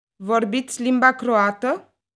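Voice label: Romanian, Sprechen Sie Kroatisch?; Vorbiţi limba croată?; Rumänisch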